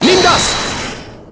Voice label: "nimm das", German; Edgeworth